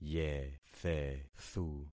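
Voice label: "yethethu", concatenated syllables; Affixing experiment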